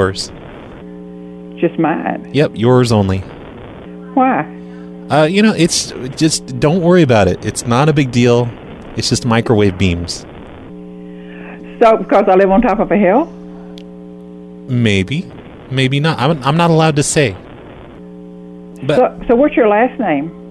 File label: Prank Calls, Home Owners; HoA; Microwaves; End The Call; Phone Losers of America Media Pack 2017